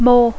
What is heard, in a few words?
"more", English